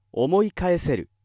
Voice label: male